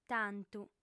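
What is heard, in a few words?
"tantu", female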